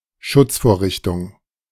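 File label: "Schutzvorrichtung", German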